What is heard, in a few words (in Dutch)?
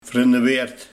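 "Verinneweerd", dialect; Verschroeid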